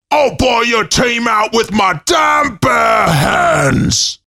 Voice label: battle cry; Saxton Hale